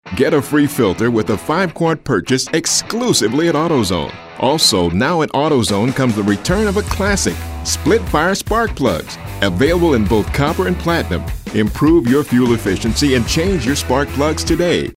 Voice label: upbeat